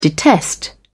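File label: "detest", British English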